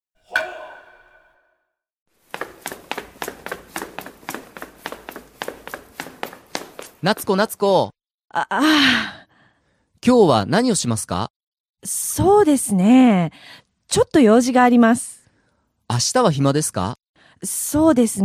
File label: dialog; JapanesePod101; Beginner Season 1